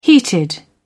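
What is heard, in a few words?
"heated", British English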